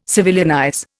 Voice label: "civilianize", Indian